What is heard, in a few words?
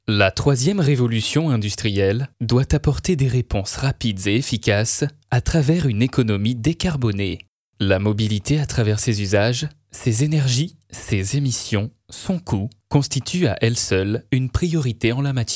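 French, male, narration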